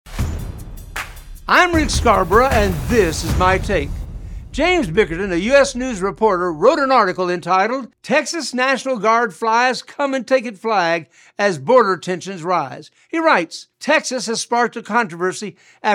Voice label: radio spot; Come and Take It